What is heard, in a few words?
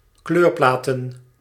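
"kleurplaten", Dutch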